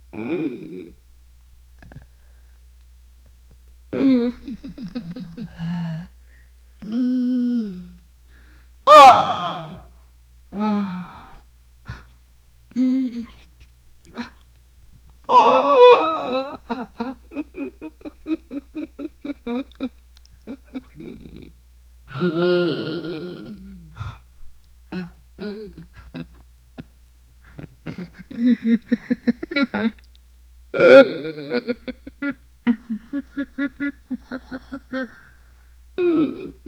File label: the womans voice is sultr, conversation